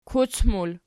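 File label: "kuc" plural, Archi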